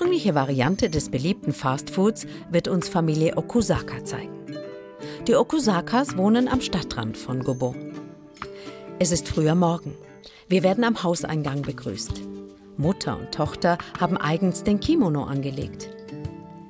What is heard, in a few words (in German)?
Film Kommentar Sushi